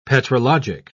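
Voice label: "petrologic", English, British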